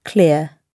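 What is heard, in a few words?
"clear", British English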